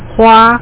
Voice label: flower, Mandarin; WordMatch; Sun Microsystems Audio File

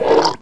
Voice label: gurp, cyborg; QUAKE2

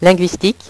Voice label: "linguistique", French; HyperSound™2

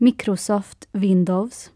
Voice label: mswin, swedish; Aristosoft, Inc.; icons

